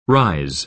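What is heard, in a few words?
"rise", American English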